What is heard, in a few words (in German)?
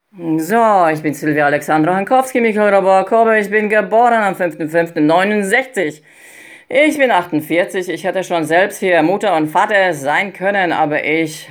Sprachmemo